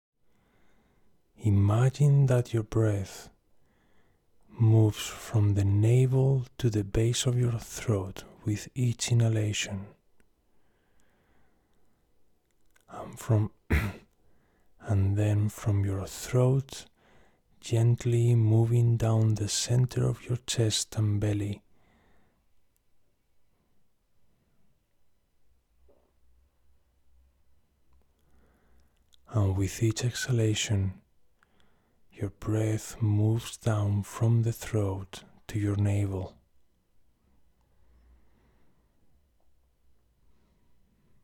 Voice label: Before After Male Meditation Teacher